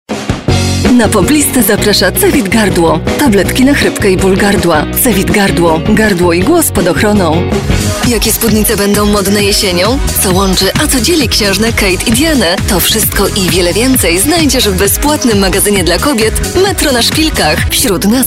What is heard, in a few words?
COMMERCIALS